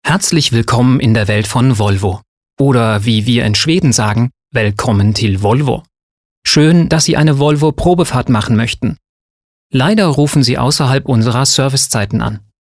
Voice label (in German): warteschleife seriös schwedisch; volvo